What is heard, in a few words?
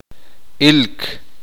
uspanteko; ver